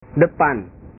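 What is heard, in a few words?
"depan", Indonesian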